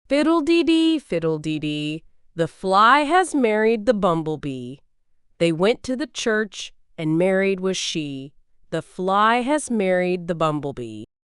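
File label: female US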